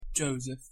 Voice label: pronunciation en "Joseph"